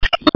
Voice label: Police Sheriff Dispatch

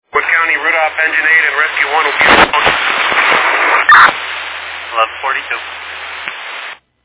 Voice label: Fire Dispatch

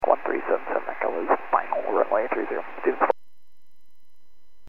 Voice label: Aviation 122.7; KSTE

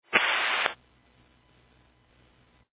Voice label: Fire Dispatch

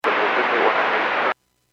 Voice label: Airports 122.7, Radio; KSTE

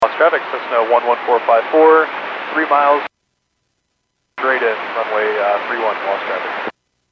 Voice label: Airports 122.7, Radio; KSTE

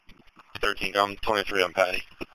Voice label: Police Sheriff Dispatch

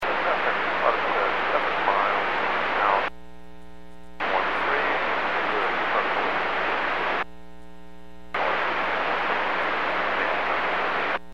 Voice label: Aviation 122.7; KSTE

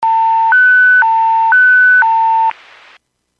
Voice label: Fire Dispatch

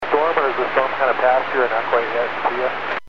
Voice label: Aviation 122.7; KSTE

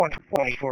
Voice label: Police Sheriff Dispatch

